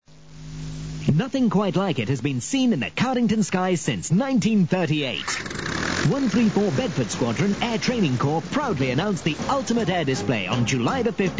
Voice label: airshow advert